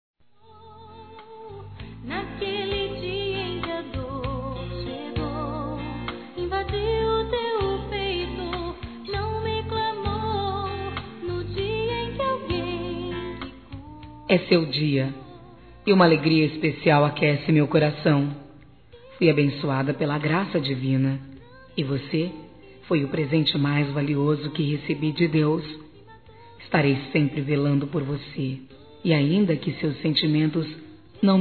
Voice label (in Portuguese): Trilha ANIV. FILHA F, Telemensagens Gospel fem; Telemensagens 2017